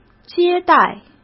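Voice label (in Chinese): "接待" Mandarin Chinese; chinese-flashcards; hsk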